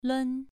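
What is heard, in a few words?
"lun", tone 2, pronunciation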